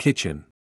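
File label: "kitchen", English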